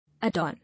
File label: "Adorn", English